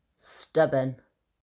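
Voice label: "stubborn", English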